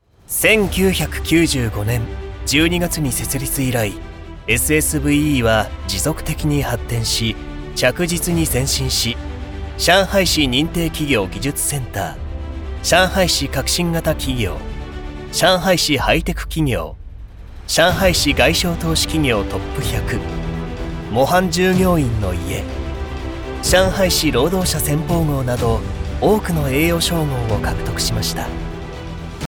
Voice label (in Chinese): 日语 男声 老师; 日语男声号老师